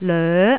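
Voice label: "loe2", Cantonese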